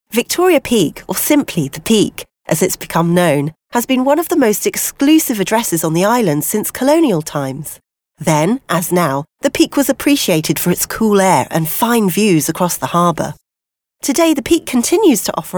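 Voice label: British English female corporate; GB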